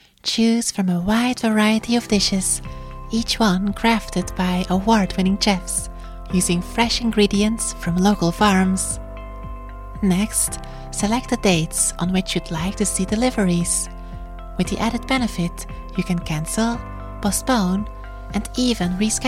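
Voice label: Accented English, General; GoLocalise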